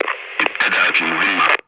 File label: Arabic Mission Start; male